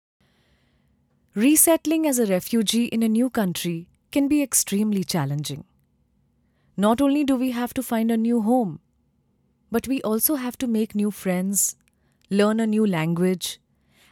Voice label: english indian audio guides; professionelle indische Sprecherin; indian english voice actors